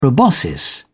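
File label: "proboscis", British English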